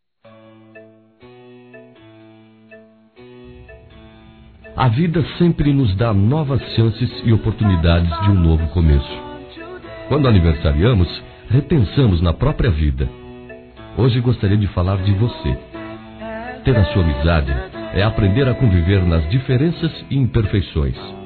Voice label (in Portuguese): Amiga Masculino; Telemensagens 2017; Aniversários; Aniversário de Amigos